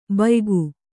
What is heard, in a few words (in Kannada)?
"ಭೂಯುಗ", Kannada